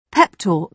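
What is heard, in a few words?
"pep talk", British English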